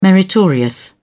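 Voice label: "meritorious", British English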